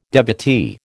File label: "devotee", American